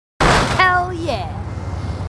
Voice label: marines female, marine tough